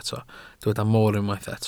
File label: "mor", Welsh